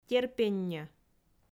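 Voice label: "терпенньӧ", Komi, female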